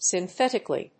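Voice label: "SYNTHETICALLY", English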